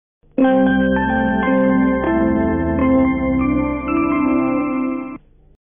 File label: commercial messages